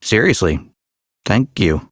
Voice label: Citizen Male, en; Citizens